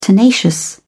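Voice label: "tenacious", British English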